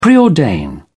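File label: "preordain", British English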